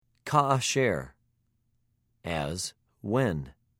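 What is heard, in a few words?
"כַּאֲשֶׁר", Hebrew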